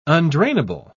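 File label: "undrainable", British English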